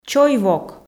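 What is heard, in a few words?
"чой-вок", Komi, female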